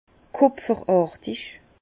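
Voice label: "kupferartig", Alsatian, Bas Rhin, cuivré; vin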